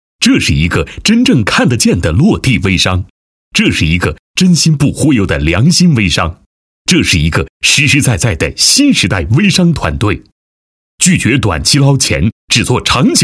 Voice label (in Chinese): 男, 广告, 微商, 磁性; 百艾堂微商